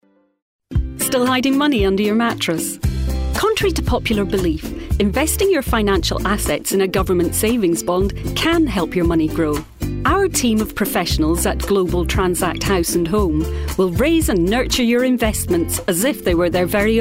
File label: uk scottish commercial; engelsk-skotsk